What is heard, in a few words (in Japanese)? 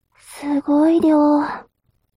mob 女子 台詞